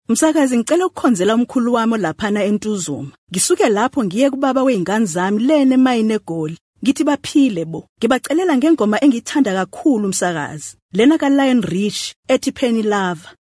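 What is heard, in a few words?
Zulu, voice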